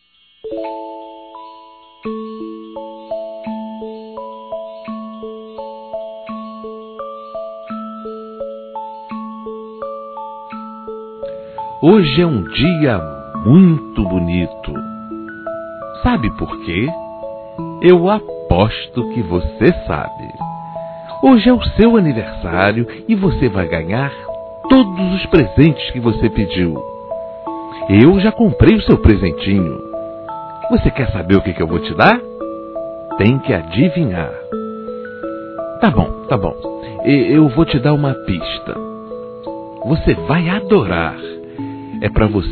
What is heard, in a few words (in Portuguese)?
Afilhado(a) Criança Masculino; Telemensagens 2017; Aniversários; Aniversário Infantil